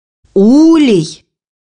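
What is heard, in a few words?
"beehive", Russian; bukvar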